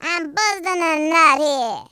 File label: "boring", Gangsta; speech